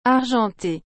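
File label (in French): "argentée", French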